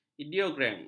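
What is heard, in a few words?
"ideogram", Malay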